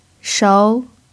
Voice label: "shou2", Chinese